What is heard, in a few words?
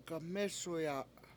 rip it off, Washo; gamEsuya